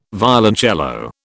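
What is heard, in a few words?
"violoncello", British English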